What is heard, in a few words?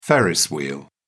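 "ferris wheel", English, British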